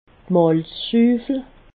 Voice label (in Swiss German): "malzschuffel", alsace